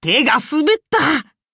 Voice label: defeat ja

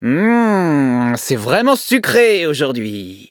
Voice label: levelup, fr; cookie run

